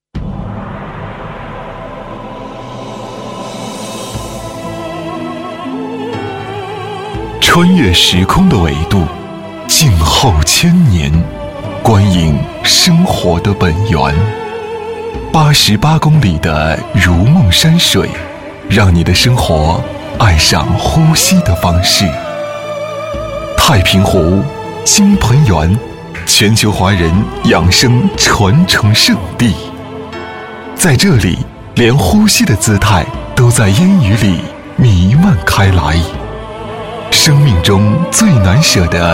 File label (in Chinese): 男 大气形象片; 年轻活力; 成熟厚重; 男7-大气形象片